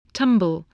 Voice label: "tumble", voice

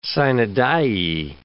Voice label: "sine die", English

